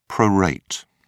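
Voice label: "prorate", British English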